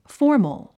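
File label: "formal", English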